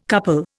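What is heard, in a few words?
"couple", Indian English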